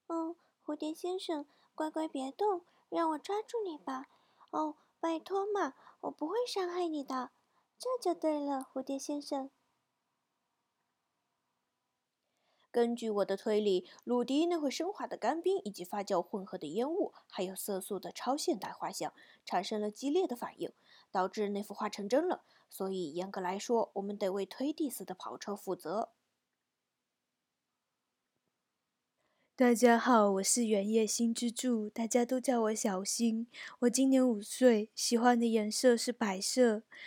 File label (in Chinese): 动画片样音